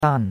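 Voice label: "dan4", Chinese; Cours de Chinois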